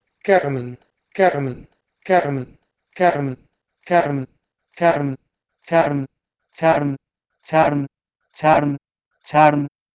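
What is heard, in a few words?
Proto-Indo-European kermn to Persian charm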